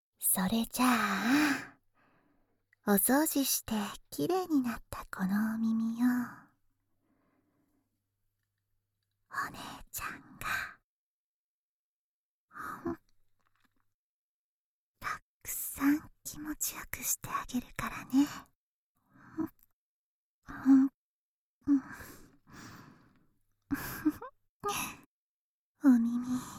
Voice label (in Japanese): 綺麗になったお耳をぺろぺろ（バイノーラル 効果音あり大きめ）